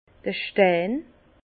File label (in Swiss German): "stein", Bas Rhin; vin; noyau